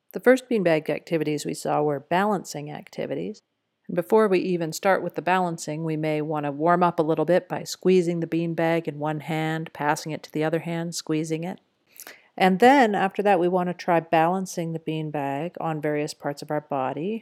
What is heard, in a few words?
audio commentary and implementation tips; EASE: Unit 5; Beanbag Balancing; Balancing Activities: MASTER THE BASICS; Squeeze the beanbag in one hand, then pass it to the other hand